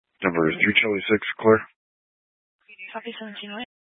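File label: Dispatch, Scanner audio recording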